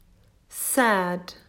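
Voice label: "Sad", English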